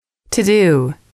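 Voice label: to do, female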